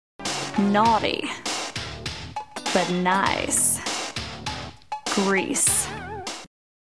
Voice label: Commercial